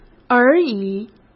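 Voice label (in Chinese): "而已", Mandarin Chinese; hsk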